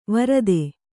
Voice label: "ವರಾತು", Kannada